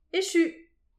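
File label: "echu", Breton; petit precis de prononciation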